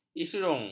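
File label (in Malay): "isirung", Malay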